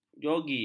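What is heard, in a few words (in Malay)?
"jogi", Malay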